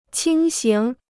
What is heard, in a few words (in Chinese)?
"轻型", Chinese